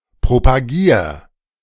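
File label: "propagier", German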